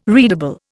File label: "readable", Indian